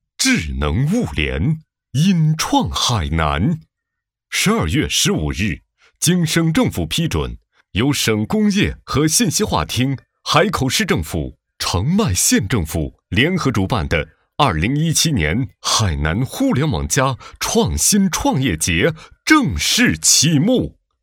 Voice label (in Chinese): 男 专题; 海南海南